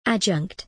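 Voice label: "adjunct", English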